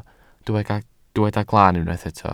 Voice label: "glaan", welsh